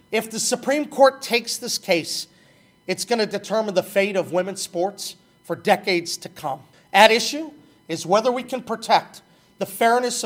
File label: Female